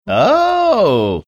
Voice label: drawn out ohh

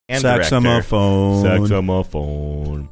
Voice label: drawn out "saxamaphone"

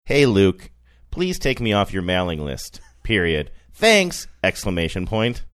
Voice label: dictating text message

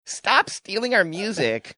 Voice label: saying stop stealing our music funny

